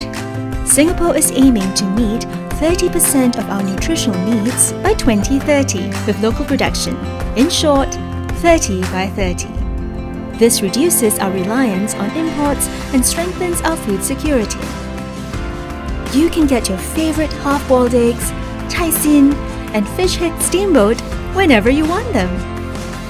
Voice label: Online Video VO, Female VO; Singapore (SG) Campaign - Bright